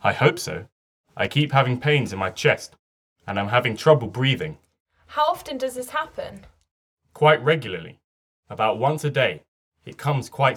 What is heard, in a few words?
Conversation; Bedside Manner Beginner